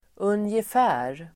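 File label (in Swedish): "ungefär", Swedish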